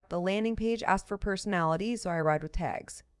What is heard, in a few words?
Polished and upbeat, useful for media-ready content and confident product copy.; polishedupbeatmedia-ready